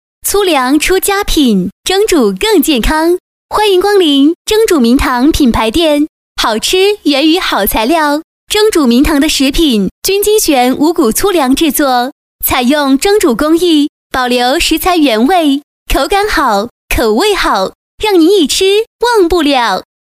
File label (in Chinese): 女 促销